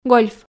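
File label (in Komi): "гольф", Komi-Zyrian, female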